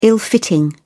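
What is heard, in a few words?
"ill-fitting", English, British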